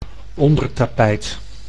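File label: "ondertapijt", Dutch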